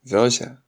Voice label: you're welcome shanghainese